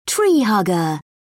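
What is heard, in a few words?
"tree hugger", British English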